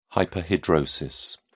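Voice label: "hyperhidrosis", British English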